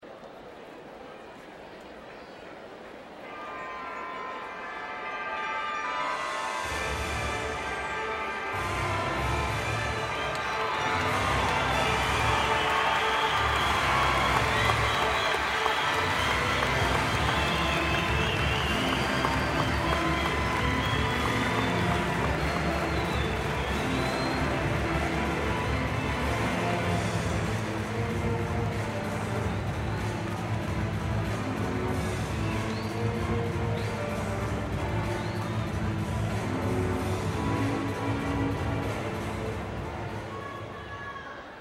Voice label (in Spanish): PARTIDO DE VOLEY ANUNCIO INICIAL; CRISTAL VS ALIANZA